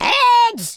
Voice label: "grenade", London Cabbie; speech

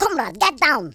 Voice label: take cover, Russki

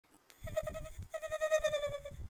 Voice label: scared, Nagging Wife

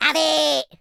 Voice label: dragonpunch, speech, Madchester

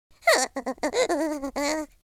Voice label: scared

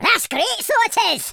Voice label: "flawless", Scotsman; speech

